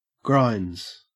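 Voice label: "grinds", Australian English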